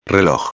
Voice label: "reloj", espanhol